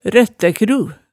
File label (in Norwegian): "røttækru", hemsedalsmaalet, tale